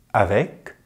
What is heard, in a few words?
"avec", French; Consonantes en francés; easypronunciation